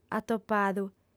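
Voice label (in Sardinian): "atopadu", female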